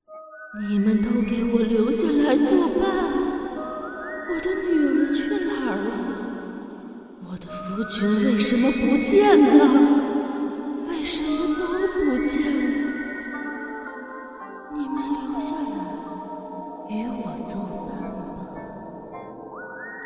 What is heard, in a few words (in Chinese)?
密室女鬼